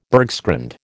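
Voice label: "bergschrund", American English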